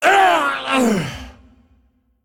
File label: Male Dragon Death